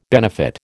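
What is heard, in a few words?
"benefit", American English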